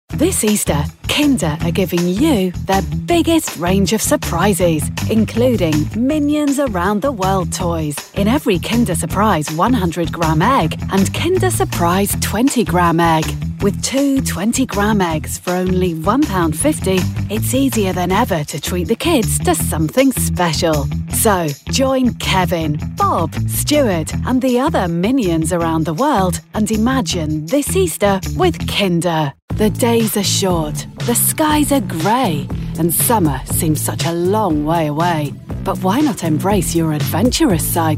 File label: system announcements instore